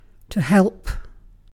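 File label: "help", British English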